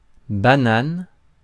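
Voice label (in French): "banane", French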